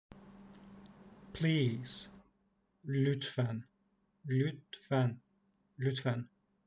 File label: please, Turkish phrasebook; the talking turkish phrasebook